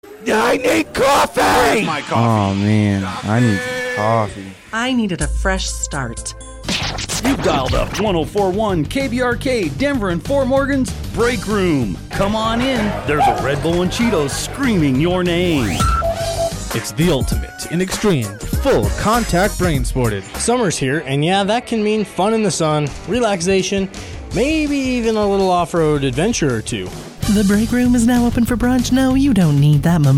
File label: Production Commercial